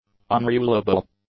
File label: "unrulable", english; adjectives